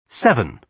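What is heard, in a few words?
"seven", British English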